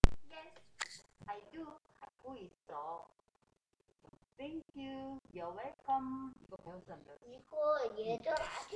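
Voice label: "hold", spoken word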